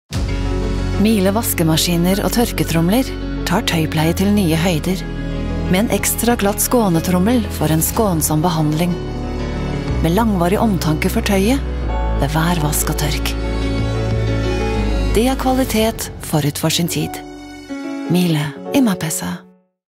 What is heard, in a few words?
norwegian commercial; professional voice over artist native in both German and Norwegian